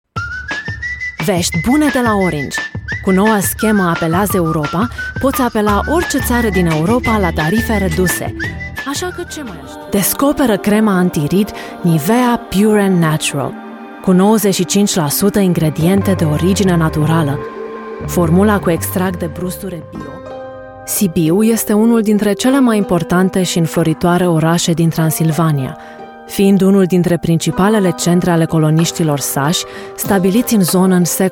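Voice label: Romanian general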